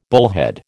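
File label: "bullhead", American English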